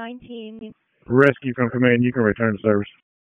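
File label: Public Safety Tactical, p25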